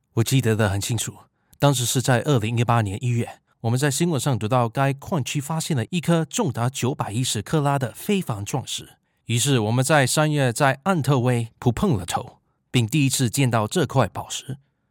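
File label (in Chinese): Chinese, General; GoLocalise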